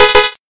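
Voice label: voice Korean, keytone; lowbattery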